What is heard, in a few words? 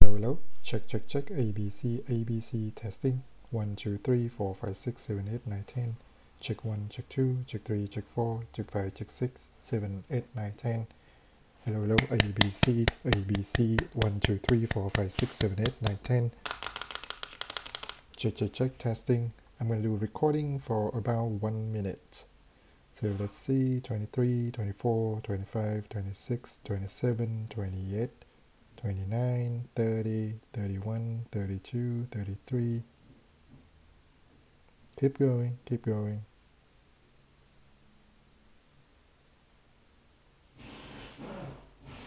example 16khz